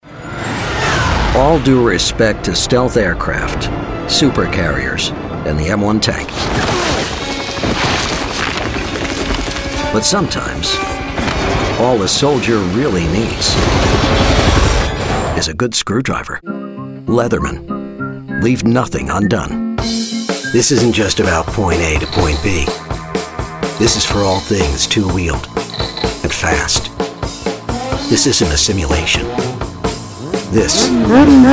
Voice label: Voice Talent Commercial

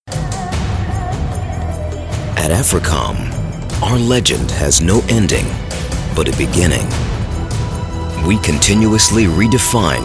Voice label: Voice Talent Narration

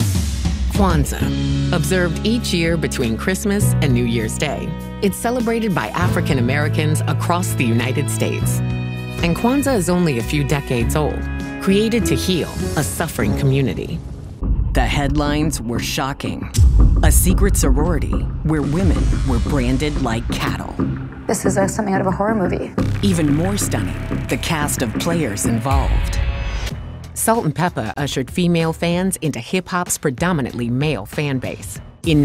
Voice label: Voice Talent Narration

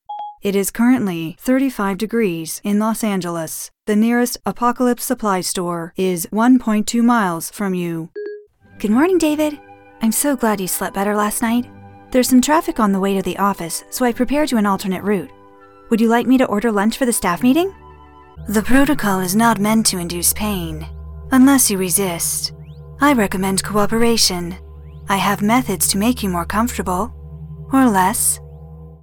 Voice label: Character; Character Demo

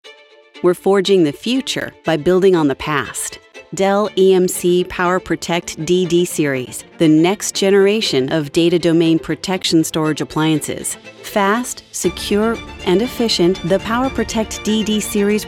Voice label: Narration

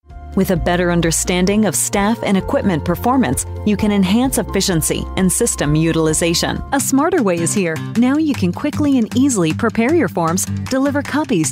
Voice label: Voice Talent Narration

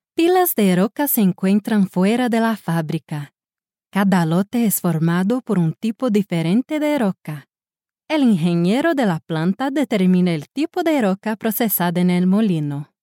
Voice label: Narration

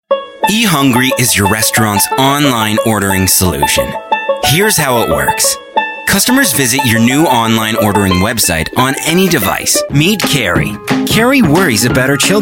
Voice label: Explainer Video

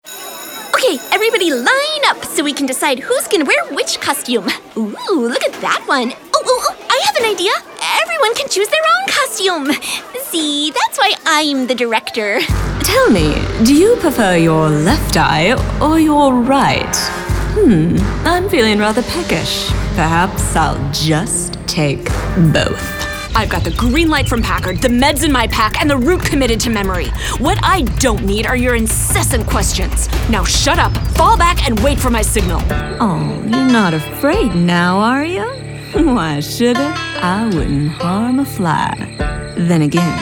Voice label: Character

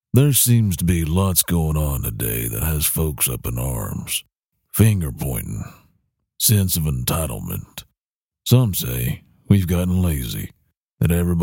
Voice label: Voice Talent Celebrity Voices; Sam Elliott

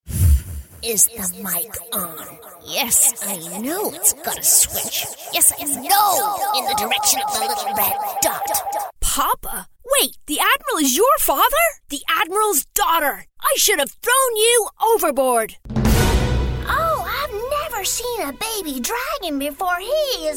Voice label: Animation

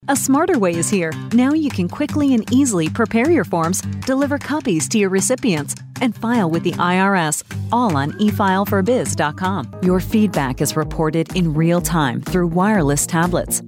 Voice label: Voice Talent Explainer

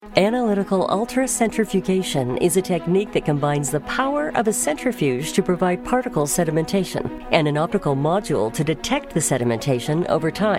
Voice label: Voice Talent Medical

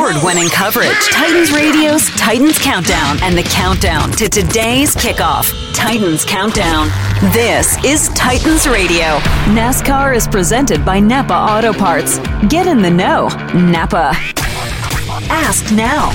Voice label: Voice Talent Sports Imaging

